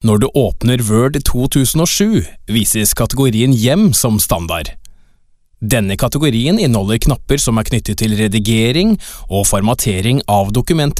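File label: Norwegian Corporate, Norwegian Male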